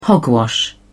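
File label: "hogwash", British English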